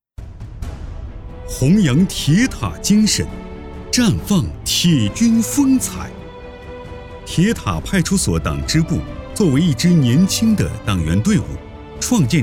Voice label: 男 专题 公安党建类

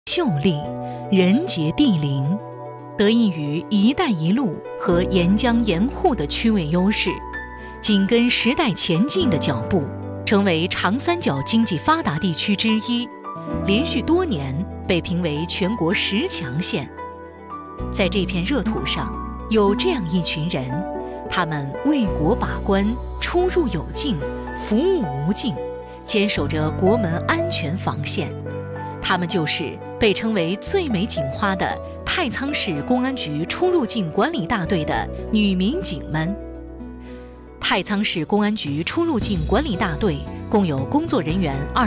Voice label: 女 专题 大气专题

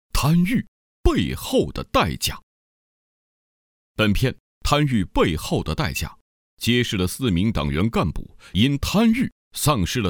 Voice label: 男, 专题; 贪欲背后的代价

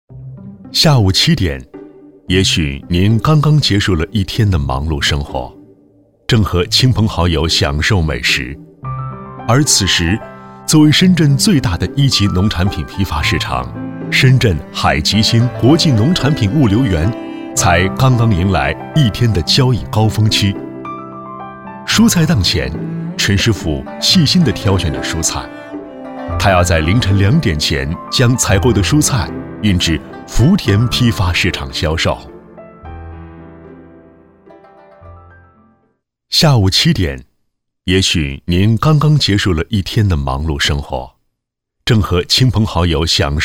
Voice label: 男 舌尖风格

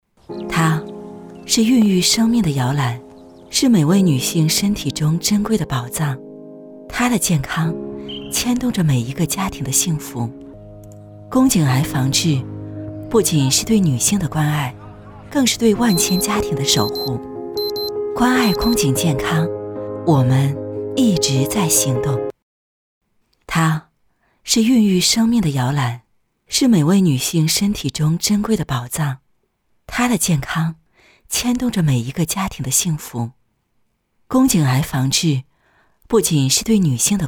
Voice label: 女 专题 温馨; 宫颈

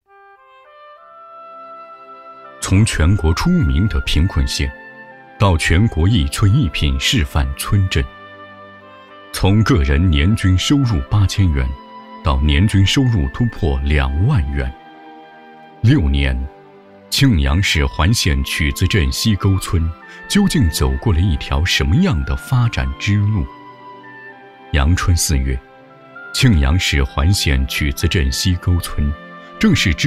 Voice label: 男 专题 乡村振兴; 西沟村

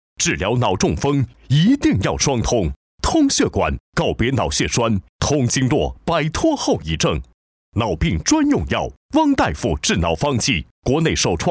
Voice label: 广告; 汪大夫治脑方剂

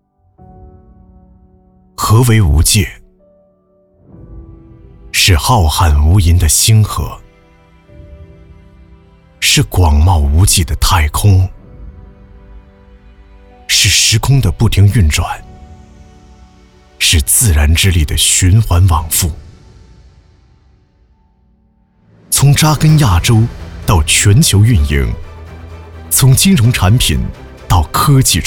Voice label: 男 广告; Opening video

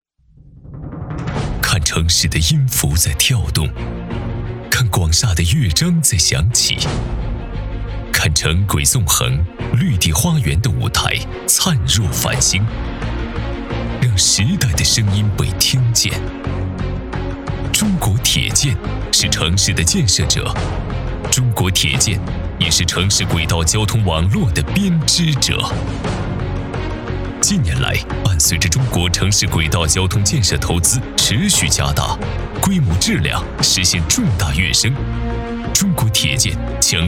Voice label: 男 汇报类; 中国铁建青岛地铁项目

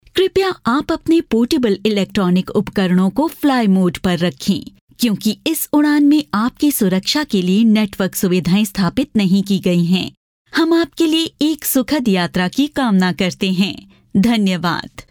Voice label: 外籍印女 清晰庄重 飞机广播

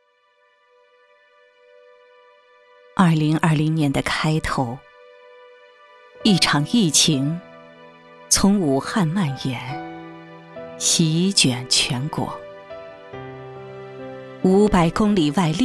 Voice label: 女 宣传片 抗疫宣传; 溧水抗疫宣传

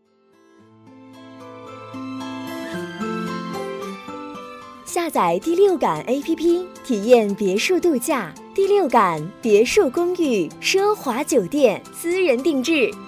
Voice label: 女 广告; 第六感APP

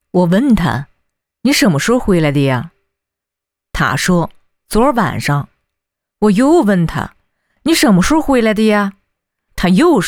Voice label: 女, 方言, 河北话, 保定话

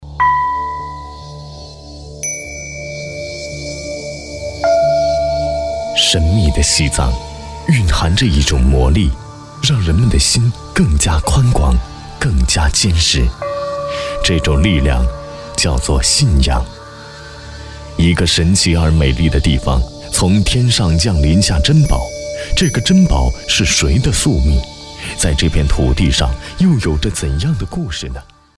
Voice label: 纪录片; 电影传奇西藏往事

